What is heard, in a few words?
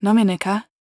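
question short, voice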